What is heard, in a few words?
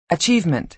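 "achievement", American English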